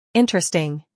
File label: "interesting", English